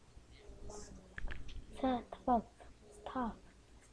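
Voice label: "staff", spoken word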